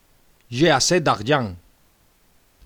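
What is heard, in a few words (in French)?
"d'argent", French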